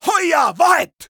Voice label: neutral